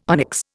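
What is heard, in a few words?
"onyx", Indian English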